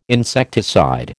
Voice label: "insecticide", American